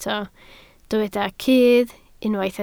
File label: "cudd", Welsh